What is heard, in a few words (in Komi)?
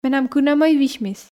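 менам кынӧмӧй висьмис, Komi, female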